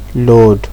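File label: "load", Nigerian Pidgin, male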